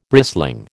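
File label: "brisling", American English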